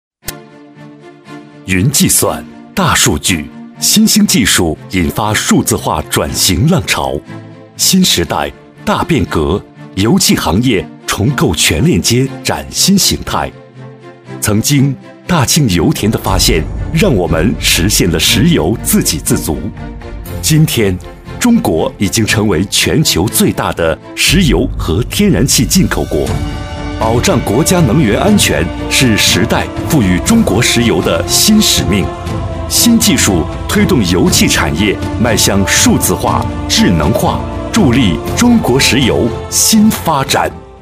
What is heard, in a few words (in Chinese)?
科技大气专题